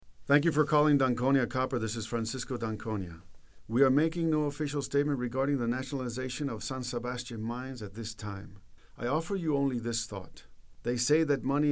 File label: voicemail